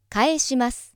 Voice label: female